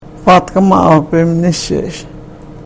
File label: karuk